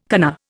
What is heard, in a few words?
"kana", Indian